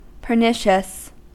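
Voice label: "pernicious", US English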